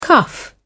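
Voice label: "cuff", British English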